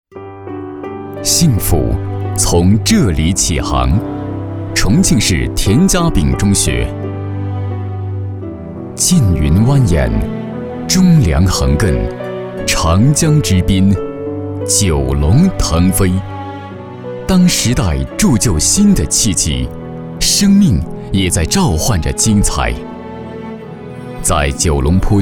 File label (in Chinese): 男国 专题 学校 稳重; 重庆田家炳中学